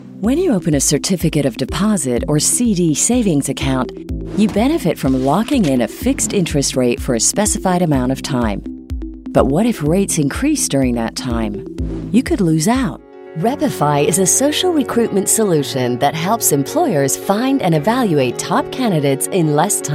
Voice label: explainer